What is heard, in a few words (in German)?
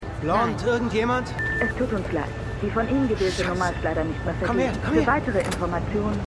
Obdachlose Telefonstimme